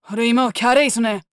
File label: VO angry